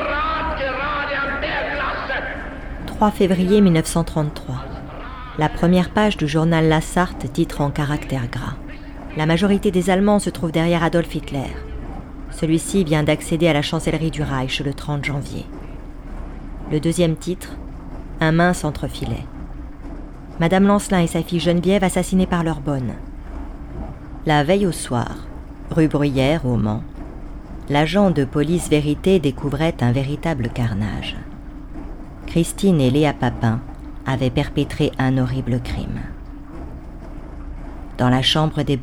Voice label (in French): Démo voix off